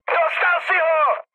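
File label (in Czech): Czech player killed enemy, mood high; War Thunder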